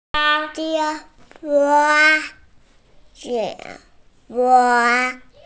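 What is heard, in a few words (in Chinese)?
"qing1wa1", Chinese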